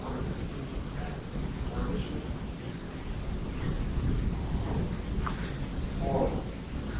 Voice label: a Yesss drawn out; while team was talking